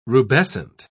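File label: "rubescent", English, British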